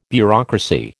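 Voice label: "bureaucracy", American English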